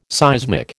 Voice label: "seismic", American